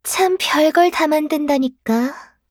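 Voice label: acceleration Line, Voice Line, Korean; Eternal Return; Garnet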